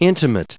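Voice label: "intimates", US English pronunciation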